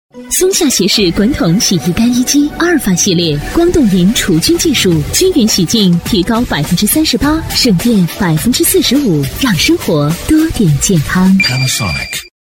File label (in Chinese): 国语女声配音，广告 电器类 洗衣机 年轻时尚